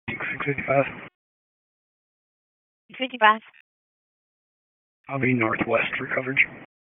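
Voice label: Dispatch, p25; WSO DISP 1; wake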